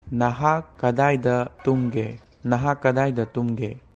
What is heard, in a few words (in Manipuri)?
"Nahak kadaida tumge?", Manipuri; Where did you sleep?